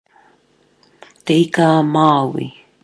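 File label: "te ika a maui", Maori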